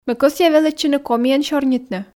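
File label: Komi sentences, female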